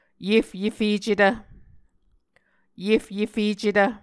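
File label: "yefyefecetv", Muscogee word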